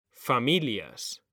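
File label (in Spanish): "familias", Spanish; mekanta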